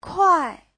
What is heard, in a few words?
"kuai4", chinese; Giáo trình Hán ngữ